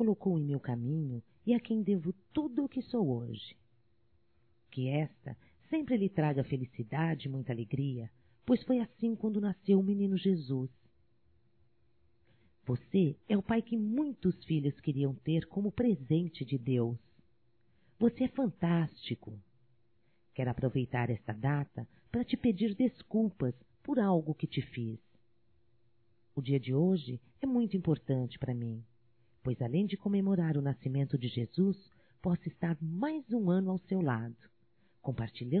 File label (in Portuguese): Ano Novo Pai Feminino; Natal e ano novo; Telemensagens 2017